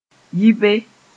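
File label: "yi be", Mossi; go away from there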